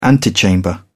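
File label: "antechamber", British English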